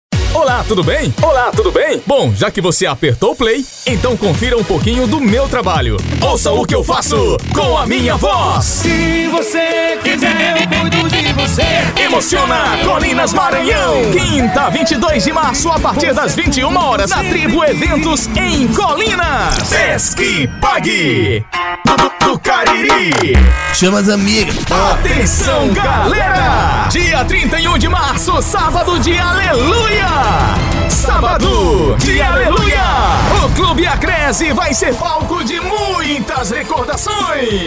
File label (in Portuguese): locutor chamadas offs spot propaganda comerciais